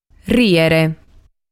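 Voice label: "Rìere", Sardinian word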